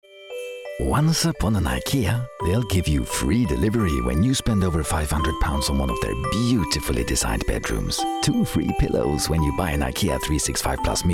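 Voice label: Swedish Accented English; GoLocalise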